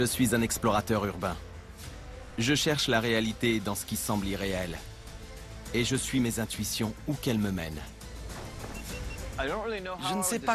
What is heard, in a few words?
extrait voiceover